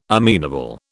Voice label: "amenable", British